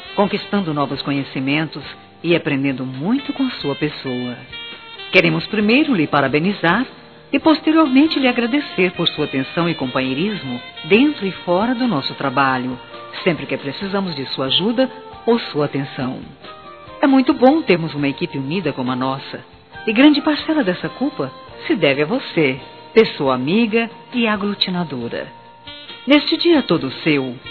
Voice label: Aniversário Chefe Feminino, Telemensagens Empresarial; Telemensagens 2017

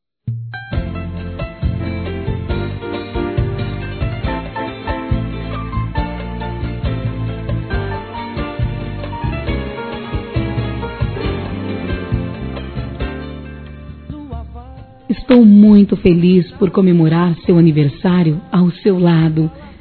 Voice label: ROM. DE CASAL F; Aniversários; ANIVERSARIO romantico; fem

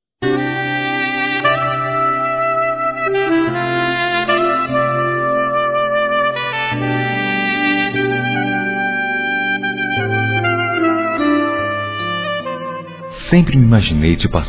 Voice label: Você Venceu Vestibular Masculino, Telemensagens; Parabenização

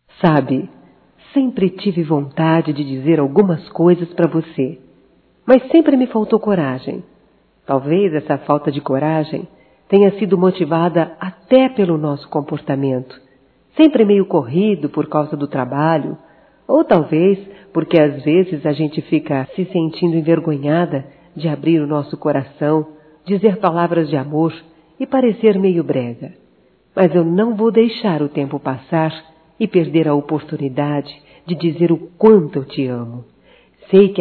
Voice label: ROMANTICA(F), Românticas, fem

